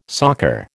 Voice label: "soccer", American